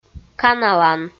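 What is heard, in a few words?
"каналан", Komi, female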